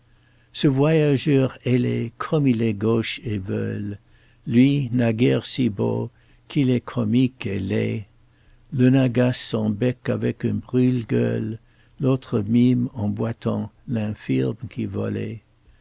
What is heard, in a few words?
male, French; mls samples; FrenchAnonymizer